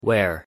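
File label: pronunciation "where", English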